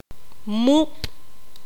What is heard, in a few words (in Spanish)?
"humo", Q'anjob'al